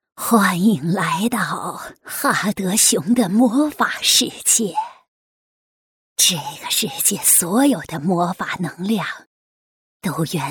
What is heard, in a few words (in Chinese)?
女巫婆音